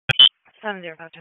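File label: Police Dispatch; Wake Forest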